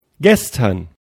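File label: "gestern", German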